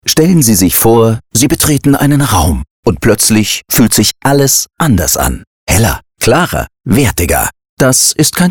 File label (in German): Beispielspot Maler